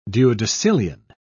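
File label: "duodecillion", British English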